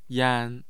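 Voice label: "yan1", Mandarin Chinese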